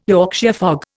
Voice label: "Yorkshire fog", Indian